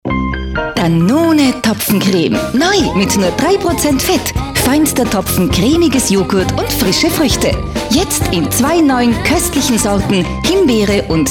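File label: german Austrian female commercial; voice demos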